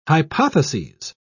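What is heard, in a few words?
"hypotheses", British English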